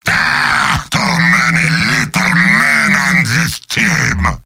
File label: heavy jeers; mvm